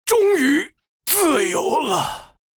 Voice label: villager, zh

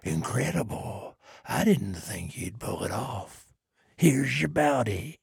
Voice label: trader male neutral quest complete

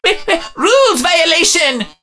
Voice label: meeple hurt; 奥利

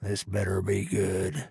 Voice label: trader male neutral greeting

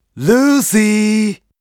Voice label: pissed zh; Headhunter

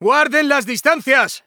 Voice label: Neutral

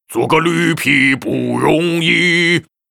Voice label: Grunt pissed, zh; 魔兽争霸3; 苦工; 兽人; 做个绿皮不容易

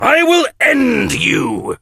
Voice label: hurt

